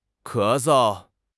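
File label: "ke2 sou5", Mandarin Chinese; HSK4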